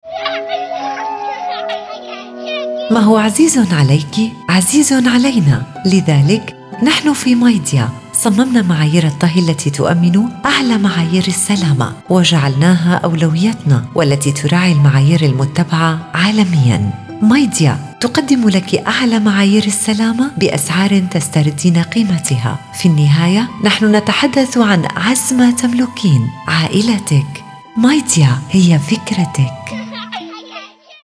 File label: MIDEA Cooking Range Arabic